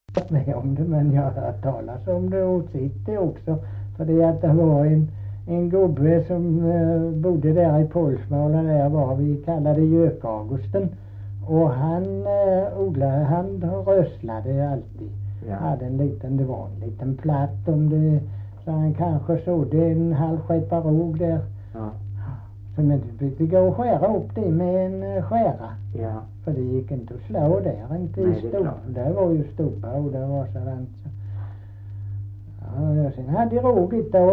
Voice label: Kristianopel BLEK, dialekter; Folkminnen

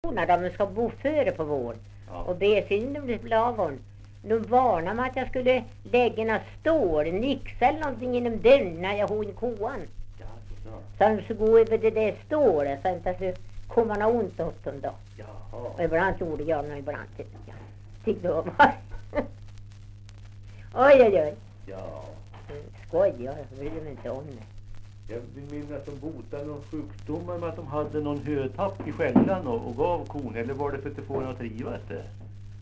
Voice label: dialekter; Folkminnen

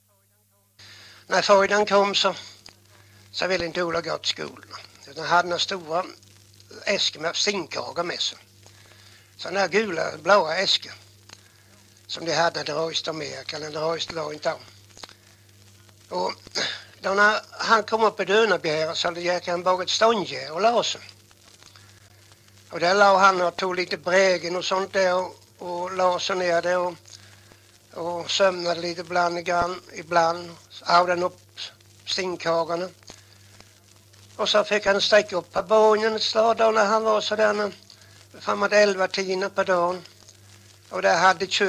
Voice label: Jamshog, dialekter

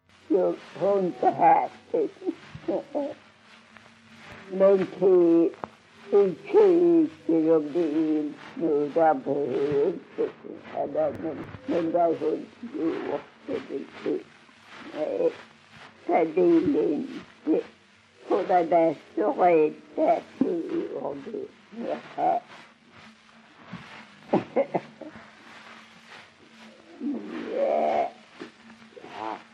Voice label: Strövelstorp SK, dialekter; Folkminnen

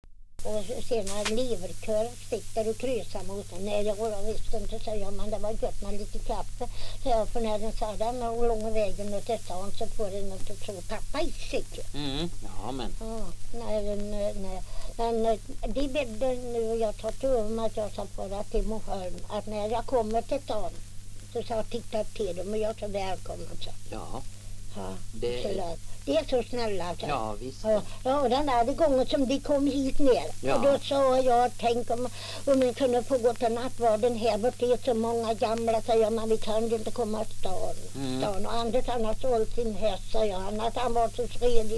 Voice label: dialekter; Folkminnen

